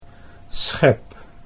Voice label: "schep", Dutch

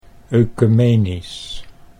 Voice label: "oecumenisch", Dutch

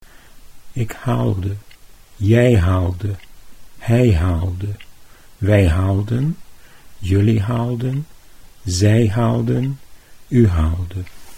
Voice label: "ik haalde", Dutch

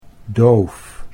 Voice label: "doof", Dutch

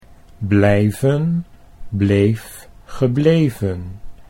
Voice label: blijven bleef gebleven, Dutch

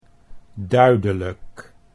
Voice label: "duidelijk", Dutch

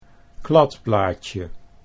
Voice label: "kladblaadje", Dutch

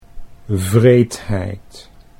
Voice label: "wreedheid", Dutch

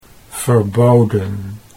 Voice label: "verboden", Dutch

